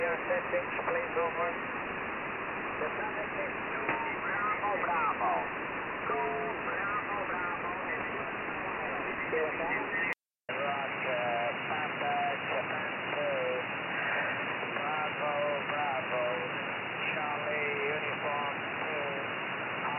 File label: 18126khz USB Amateurfunk; Verständlichkeit beider SIgnale identisch. Perseus hat mehr Hintergrundrauschen. Empfang in USB und 2.8KHz Bandbreite. Antenne: ML200; Cloud-IQ